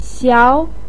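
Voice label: "xiao2" Chinese syllable, pinyin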